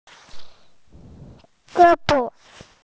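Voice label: "ge bo" Chinese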